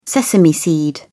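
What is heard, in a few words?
"sesame seed", British English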